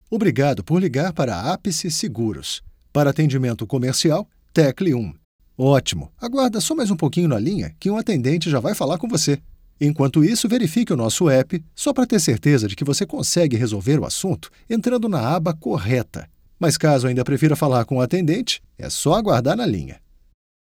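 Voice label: Corporate, Business & Presentation Voice; Brazilian Portuguese; Male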